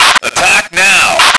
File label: male attack; lmctf